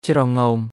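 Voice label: "ច្រងោម", Khmer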